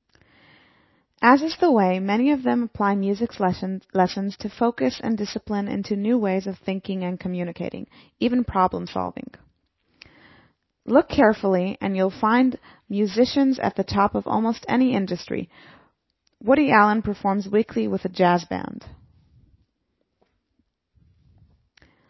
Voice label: cln female